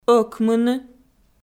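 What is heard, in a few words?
"ӧкмыны", Komi-Zyrian, female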